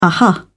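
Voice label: "uh huh", British English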